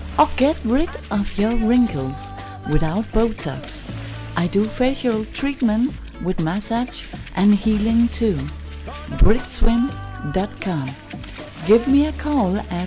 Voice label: swim english